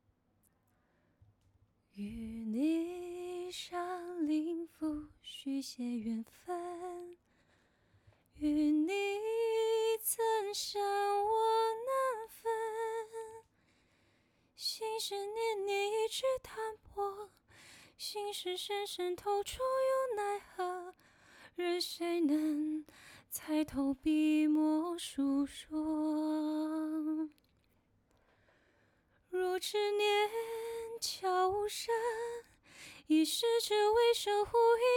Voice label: 古风; 周生如故

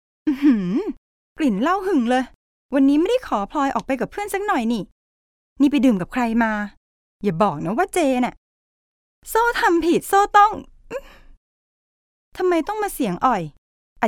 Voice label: 泰语女

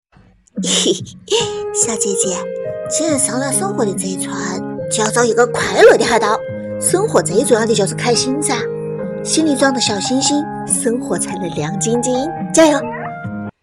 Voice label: 搞笑; 上了生活的贼船

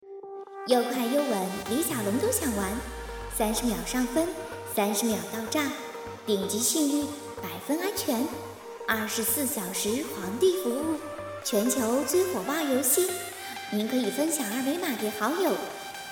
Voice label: 游戏样音清雅

